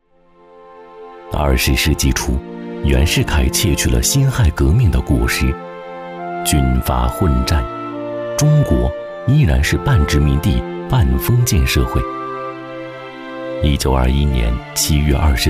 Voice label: 男,纪录讲述 革命

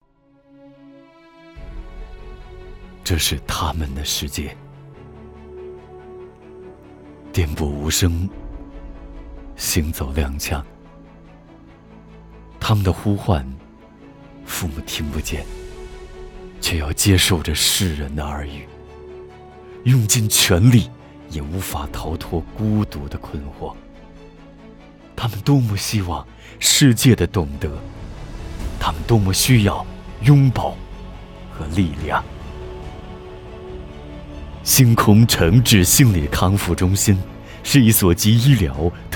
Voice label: 男 走心; 这是他们的世界

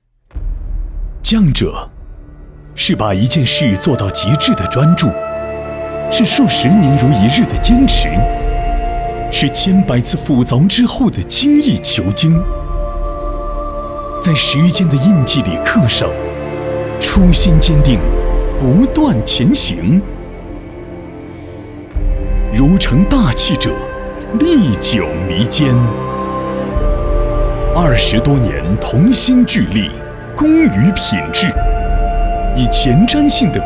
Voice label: 男 品质讲述; 匠者

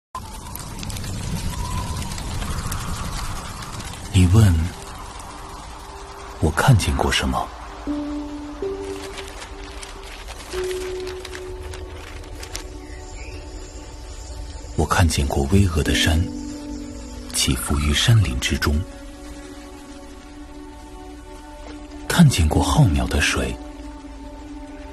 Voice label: 男 宣传片 独白 磁性文艺

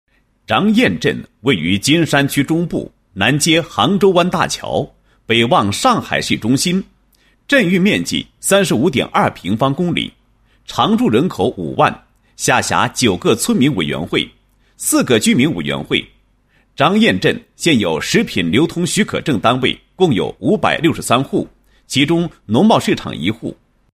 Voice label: 男27-工作纪实; 创建上海市食品安全示范镇

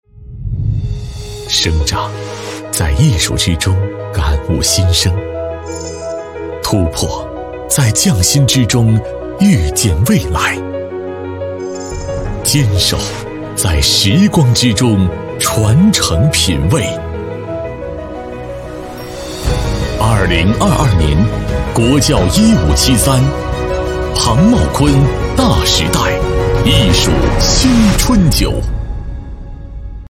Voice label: 男 广告 酒类; 国窖1573

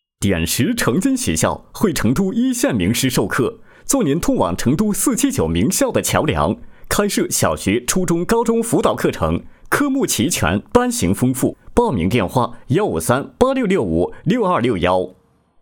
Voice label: 男, 招生广告; 点石成金学校

